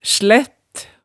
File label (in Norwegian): "slætt", hemsedalsmaalet